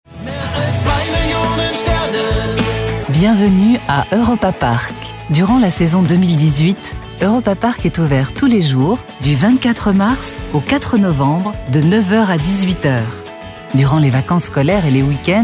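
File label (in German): telefonansagen sprecher French; Native Speaker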